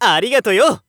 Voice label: shop youngman thanks

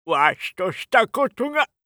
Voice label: oldman down, voice

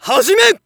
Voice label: Narration Start; SAMURAI

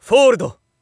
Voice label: Narration POKER Fold

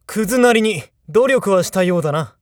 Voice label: jakigan win, voice

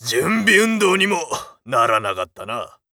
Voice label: macho win, voice

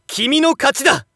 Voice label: Narration WIN; Hanyou

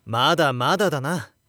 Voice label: Narration LOSE; Hanyou

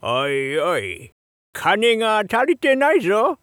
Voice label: shop oldman, voice

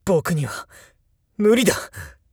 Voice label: jakigan down, voice

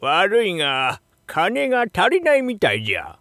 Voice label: shop oldman, voice

